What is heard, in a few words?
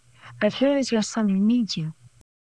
Voice Acting es